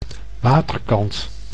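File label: "waterkant", Dutch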